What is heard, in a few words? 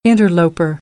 "interloper", American English